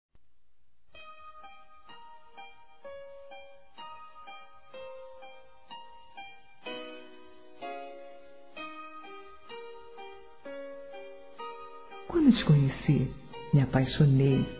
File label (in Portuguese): ANIV. ROMANTICO F